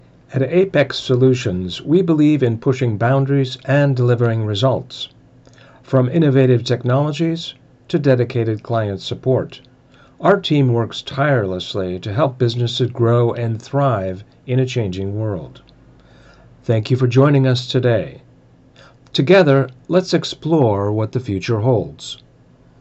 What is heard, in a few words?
Corporate Narration Samples Presentations, voice over narration